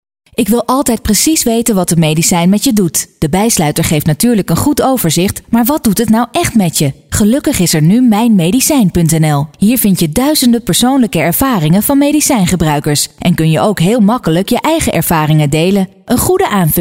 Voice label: Commercial; Mijnmedicijn 2009